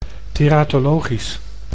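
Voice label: "teratologisch", Dutch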